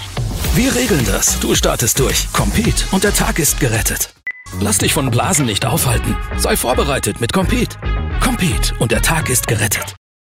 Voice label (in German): Ad Kampagne Blasenpflaster; Compeed